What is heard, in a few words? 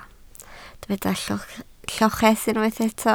"lloches", Welsh